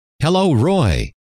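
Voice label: "hello", male; trainer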